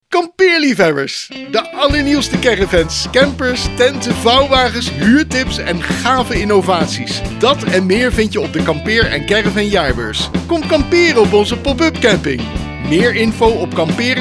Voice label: commercial; KCJ